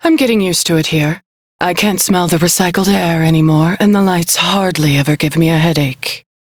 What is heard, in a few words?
Crew Idle, Female; Dialog; en; Warframe; Railjack Crew; New Loka